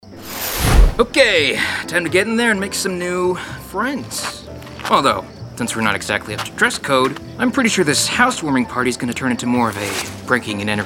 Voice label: animation, voice over